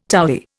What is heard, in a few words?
"towy", Indian